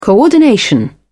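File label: "coordination", British English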